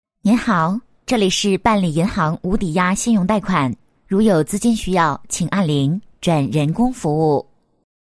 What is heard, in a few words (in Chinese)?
女 彩铃 您好 这里是办理银行无抵押信用贷款